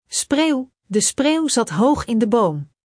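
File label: "spreeuw", Dutch; Spelling Gr4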